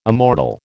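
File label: "immortal", American English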